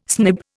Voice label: "snib", Indian